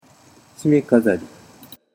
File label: "shimekazari", Japanese